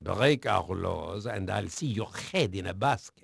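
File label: French male, voice; Pirates Of The Caribbean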